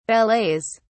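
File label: "ballets", British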